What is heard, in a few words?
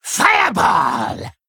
Male Kobold Attack